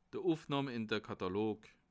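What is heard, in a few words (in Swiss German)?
"katalog", Alsatian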